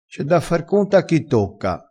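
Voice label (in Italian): "fa r conto", vocabolario dialettale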